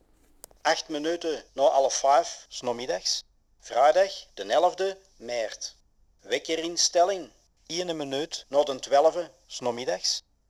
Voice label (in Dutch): Antw man